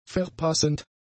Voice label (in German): "verpassend", german; adjectives